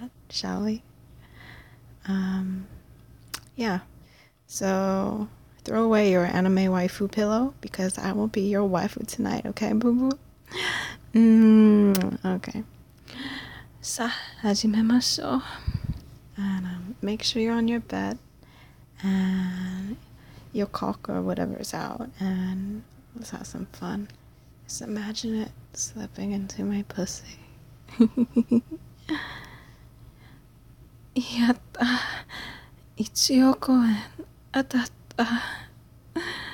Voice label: I speak Japanese, Comedy, Wet Noises, Vulgar Profanity; Weeaboo Cringe; Japanese Gibberish; F4A; Replacing Your Anime Waifu